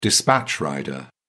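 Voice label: "dispatch rider", English, British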